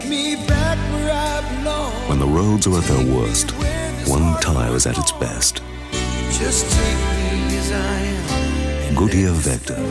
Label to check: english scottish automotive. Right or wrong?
Right